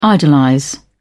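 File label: "idolize", English, British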